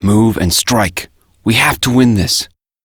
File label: Operator Voice; Warframe; Operator Mission; Battle Begins